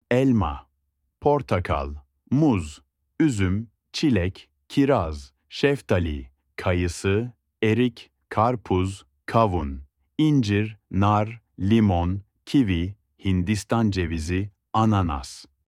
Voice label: fruits in turkish